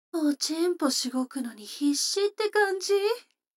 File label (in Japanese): 女の子台詞